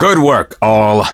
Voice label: victory en; Cookie Run